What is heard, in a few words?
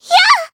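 Voice finish, Japanese; yuna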